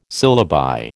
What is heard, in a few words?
"syllabi", American